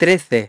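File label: "thirteen", English; iOS 4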